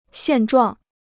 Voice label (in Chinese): "现状", Chinese